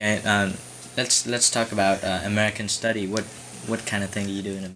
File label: Conversation with 12 year old male Virginia, american english dialect